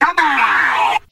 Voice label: "come on", distort voice